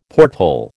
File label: "porthole", American